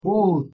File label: "puut", Urdu